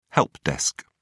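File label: "helpdesk", British English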